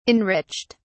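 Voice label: "enriched", British English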